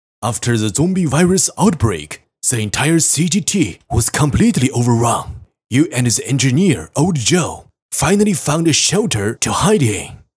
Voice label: 男 英语角色 旁白

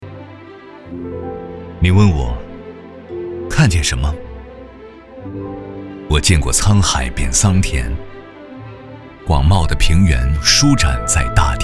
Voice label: 男 独白; 我-是阜宁

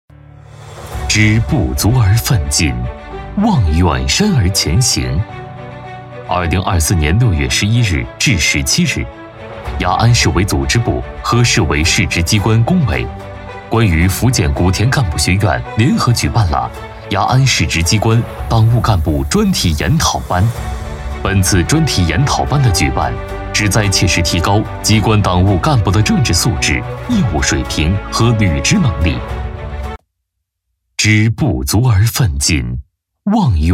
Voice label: 男 宣传片; 雅安

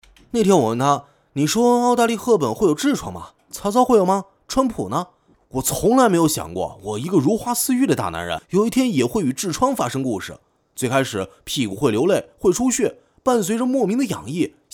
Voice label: 男 独白; 那天我问它

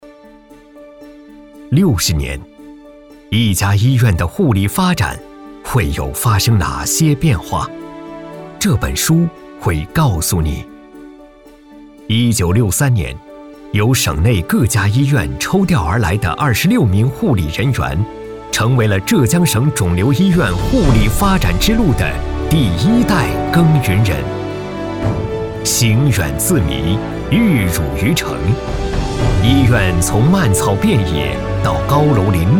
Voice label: 男 医院类

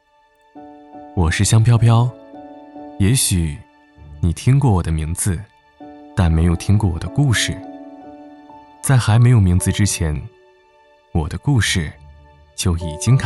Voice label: 男 质感走心; 香飘飘

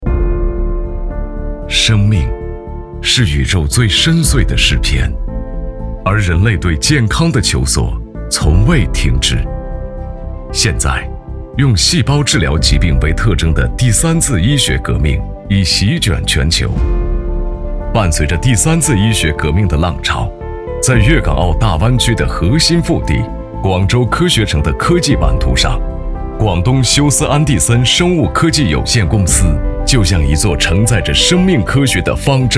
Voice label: 男 专题; 休斯安的森

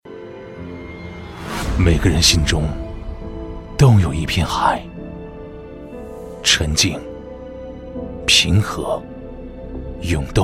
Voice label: 男 宣传片; 冠军tb

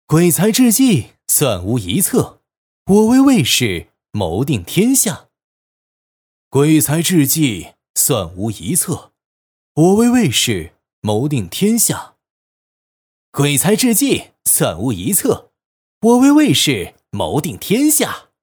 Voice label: 男 游戏 语音内容

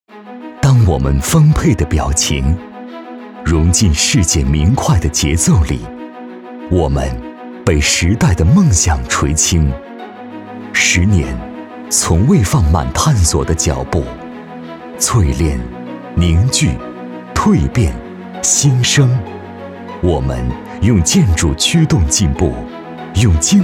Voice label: 男 企业工程 稳重 大气; 十五建设

